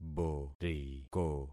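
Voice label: "boriko", concatenated syllables; Affixing experiment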